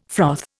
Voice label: "froth", Indian

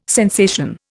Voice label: "sensation", Indian

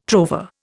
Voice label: "trover", Indian

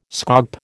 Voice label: "scaup", American

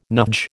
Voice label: "nudge", American

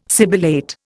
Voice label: "sibilate", Indian

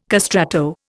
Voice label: "castrato", Indian

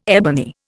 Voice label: "ebony", Indian

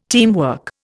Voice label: "teamwork", Indian

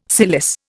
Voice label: "cilice", Indian

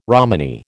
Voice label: "Romany", American

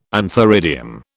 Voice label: "antheridium", British

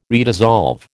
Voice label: "redissolve", American

